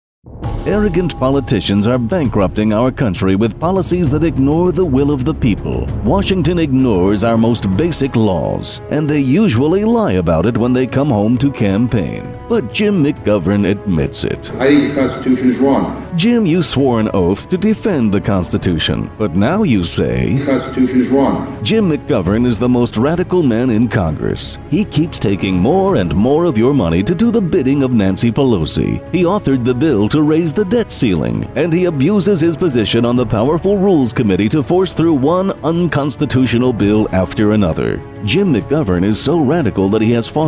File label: political ad; jim mcgovern